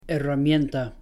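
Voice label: "herramienta", Spanish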